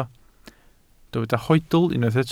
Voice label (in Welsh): "hoedl", Welsh